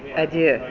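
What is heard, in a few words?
"adieu", Canadian female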